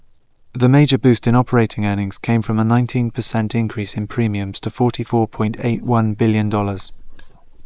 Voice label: Reverb far small